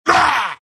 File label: troll pain; dota; troll warlord